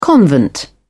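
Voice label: "convent", British English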